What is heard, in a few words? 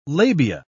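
"labia", British English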